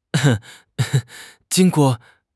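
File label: "jing1 guo4", Mandarin Chinese; HSK3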